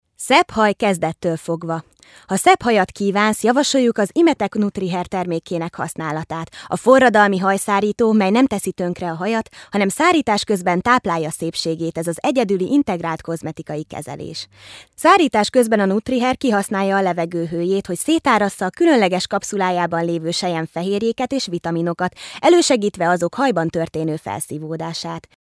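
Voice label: female Hungarian IVR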